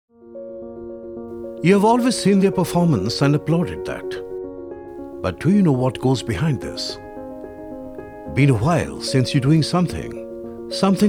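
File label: Promo English; Automatic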